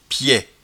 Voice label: "piepeli", patois jura

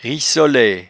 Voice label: "rissolaie", patois jura

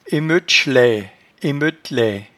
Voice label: "emeutchlaie", patois jura

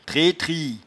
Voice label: "tretrie", patois jura

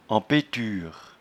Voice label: "empeture", patois jura

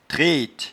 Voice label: "trete", patois jura

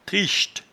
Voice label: "trichte", patois jura

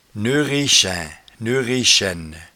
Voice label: "neurrechaint", patois jura

